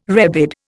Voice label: "rebid", Indian